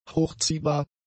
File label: "hochziehbar", German; adjectives